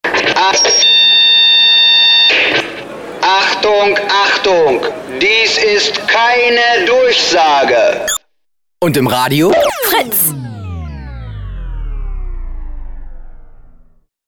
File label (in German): durchsage